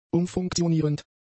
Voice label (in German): "umfunktionierend", German; adjectives